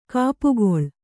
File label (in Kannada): "ಗುಪ್ಪೆಗೊಳ್", Kannada